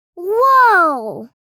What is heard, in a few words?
Woah girl child kid